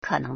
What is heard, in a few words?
"ke3neng2", Chinese